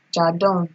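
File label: "jandon", Nigerian Pidgin, female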